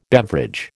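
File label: "beverage", American English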